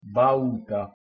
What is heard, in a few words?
"bauta", Proto Germanic